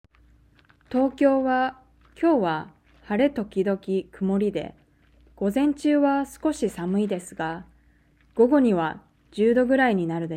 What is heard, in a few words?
Reading natural; Nakama; OJAD